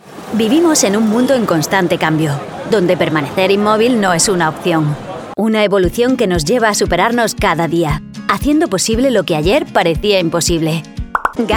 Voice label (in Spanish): espana, espanol-espana